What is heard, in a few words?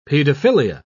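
"paedophilia", British English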